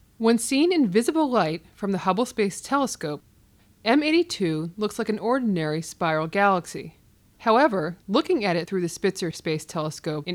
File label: narration; m82